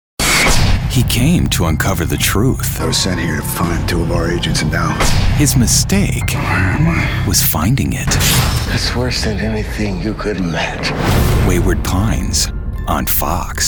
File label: promo, promo men